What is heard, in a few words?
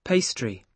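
"pastry" English, British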